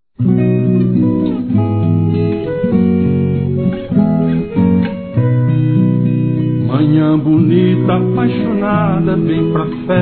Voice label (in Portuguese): FILHO (F)